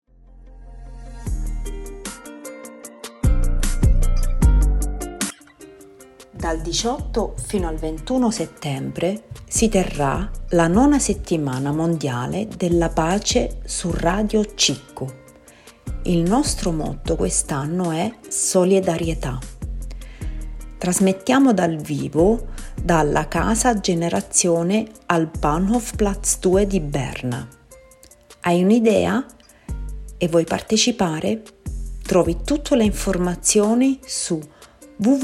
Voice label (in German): Italienisch Jingle